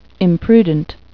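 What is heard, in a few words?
"imprudent", English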